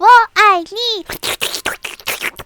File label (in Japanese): ウォーアイニー！（ペロペロ音）